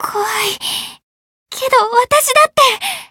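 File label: Voice encounter fear, Japanese; mayu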